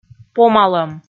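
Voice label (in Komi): "помалӧм", Komi, female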